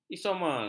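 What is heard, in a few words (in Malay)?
"isomer", Malay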